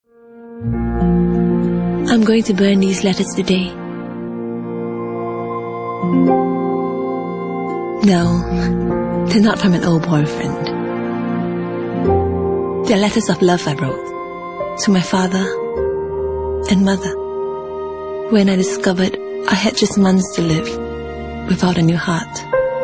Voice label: Asian English, English Singaporean Female

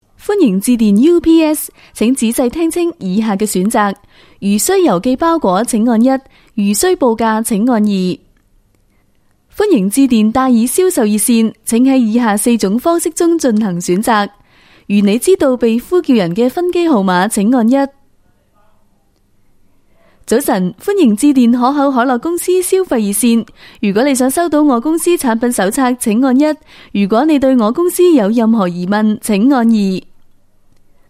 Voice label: Cantonese, Cantonese Female

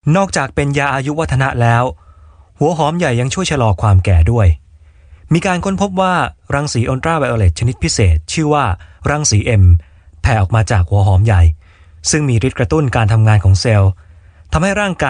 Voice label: Clean Thai, Thai Male

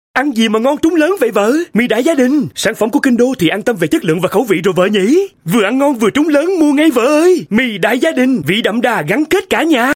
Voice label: South Male Vietnamese

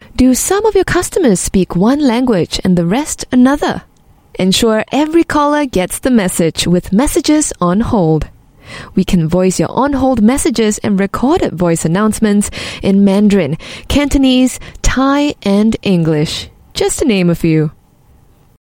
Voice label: Asian English, English Singaporean Female

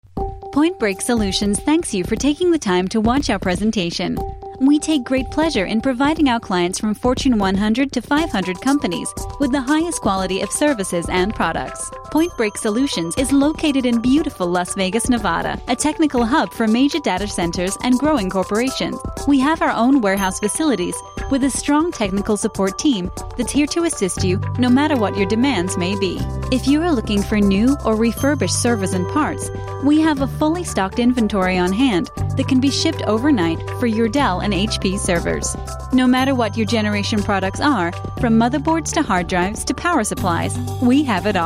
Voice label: US UK English IT Corporate, Female

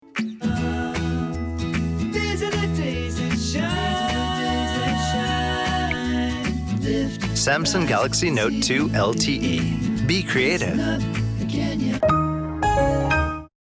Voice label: US English TAG, Male; Samsung Galaxy Note

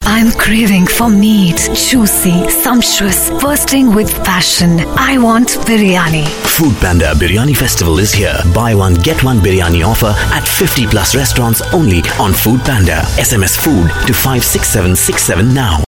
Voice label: Indian English, Female; Food Panda

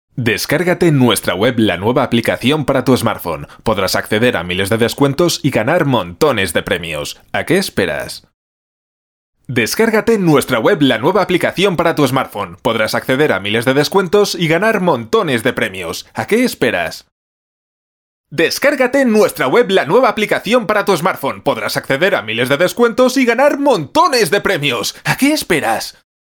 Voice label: Euro Spanish Male Promo Read

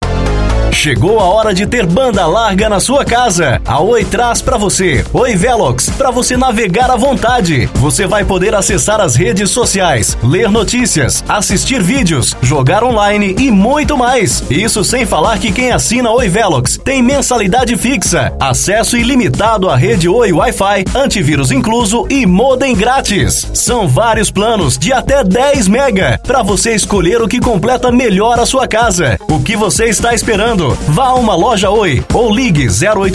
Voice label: Brazilian Portuguese Male

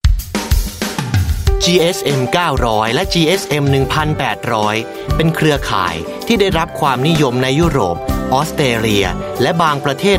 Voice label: Thai, male

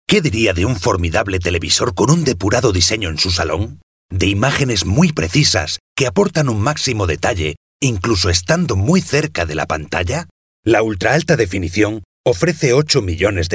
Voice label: Euro Spanish Commercial, Male

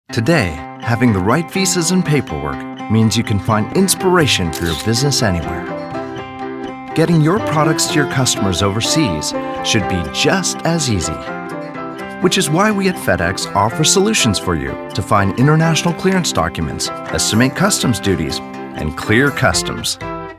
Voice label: US English, English US Male; FedEx TVC

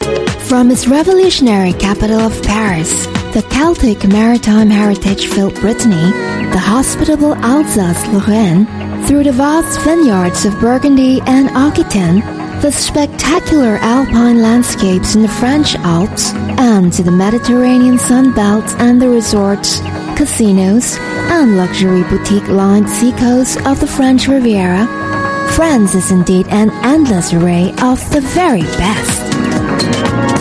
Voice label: English Singaporean Female, All Voices; Maison de la France

